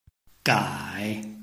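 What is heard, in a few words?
"gai", Chinese, tone 3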